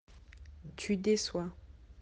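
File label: "décevoir", French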